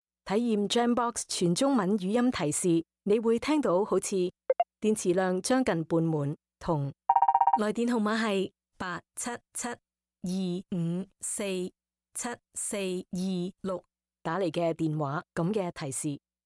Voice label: Cantonese